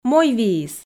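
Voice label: "мойвиис", Komi, female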